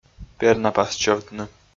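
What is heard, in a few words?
"пернапас чӧвтны", Komi, male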